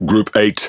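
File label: Speech Pilots Bomber, British English; World Of Warships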